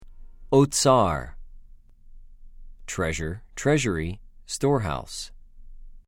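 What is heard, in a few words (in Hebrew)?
"אוֹצָר", Hebrew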